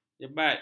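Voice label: "jebat", Malay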